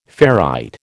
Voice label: "ferrite", American